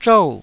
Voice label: "zhou4", Taiwanese